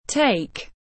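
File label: "Take", English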